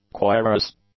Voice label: "cuirass", English